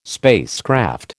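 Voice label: "spacecraft", American English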